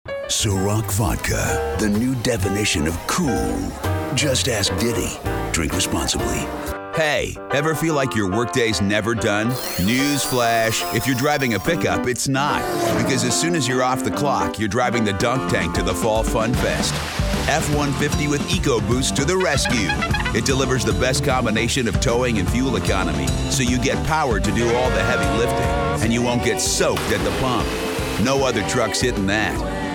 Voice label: commercial, men